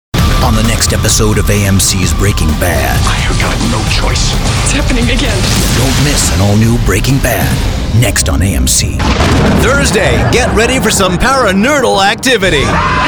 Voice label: Promo